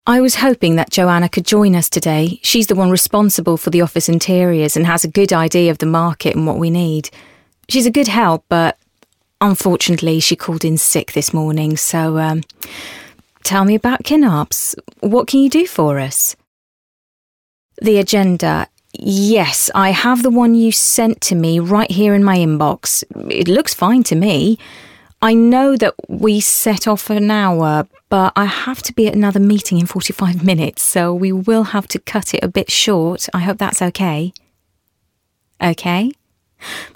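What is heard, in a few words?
English, British, female; character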